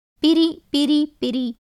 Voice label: Tamil